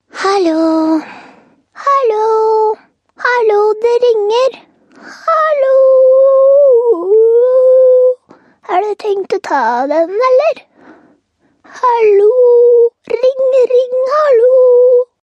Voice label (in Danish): Hallo hallo det ringer, Ringtones